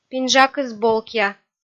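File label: "пинжакыс болкъя", Komi, female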